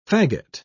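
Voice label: "fagot", British English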